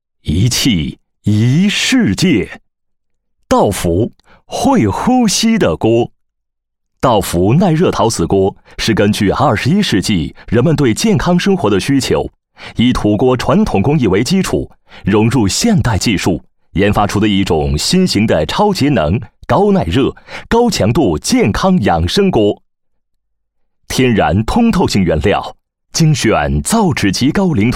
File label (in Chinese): 男 宣传片 产品宣传配音; 道福砂锅